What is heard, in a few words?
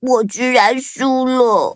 defeat, zh-hant; cookie run